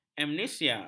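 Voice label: "amnesia", Malay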